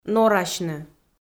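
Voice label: "норасьны", Komi, female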